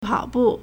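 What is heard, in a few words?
"pao3bu4", Mandarin Chinese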